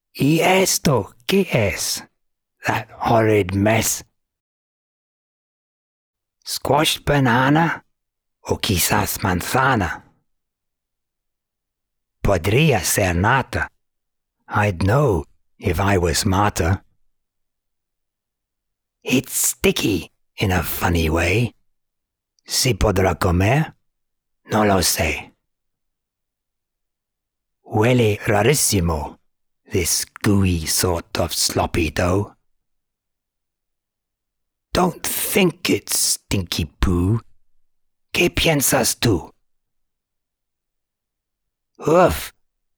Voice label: Spanish